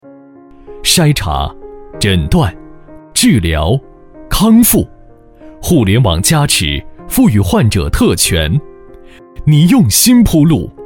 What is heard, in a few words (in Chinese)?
男 颁奖; 武汉市第八届新闻奥斯卡颁奖礼